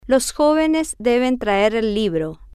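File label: los Jovenes Deben Traer El Libro, Spanish, female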